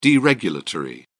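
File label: "deregulatory", English, British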